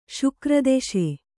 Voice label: "ಶುಕ್ರದೆಶೆ", kannada

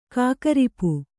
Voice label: "ಕಾಕರಿಪು", Kannada

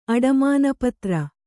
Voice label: "ಅಡಮಾನಪತ್ರ", Kannada

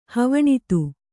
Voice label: "ಹವಣಿತ್ತು", Kannada

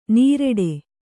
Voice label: "ನೀರೆಡೆ", Kannada

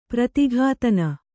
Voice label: "ಪ್ರತಿಘಾತನ", Kannada; prati ghātana; noun

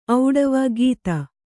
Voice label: "ಖತ", Kannada

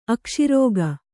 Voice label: "ಅಕಶೇರುಕ", Kannada

